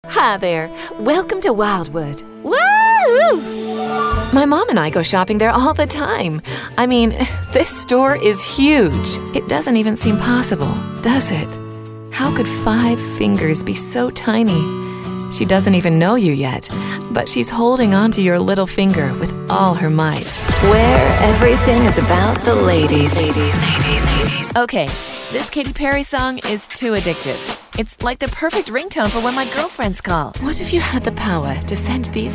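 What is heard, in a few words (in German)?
US English, Werbung Variabel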